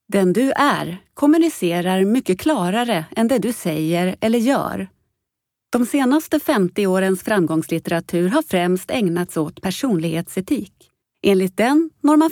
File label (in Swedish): Informativ